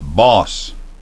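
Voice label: "boss", English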